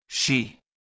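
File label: "she", English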